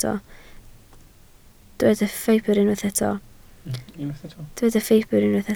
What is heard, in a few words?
"ffibr", Welsh